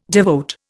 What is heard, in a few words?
"devote", Indian